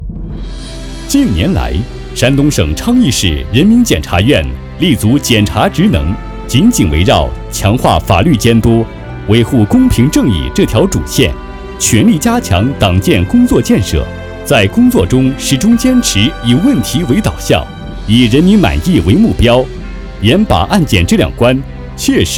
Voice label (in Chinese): 男国 专题 政府 自然; 人民检察